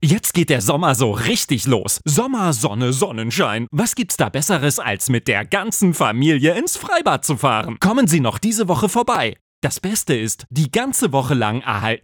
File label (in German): Werbung Freibad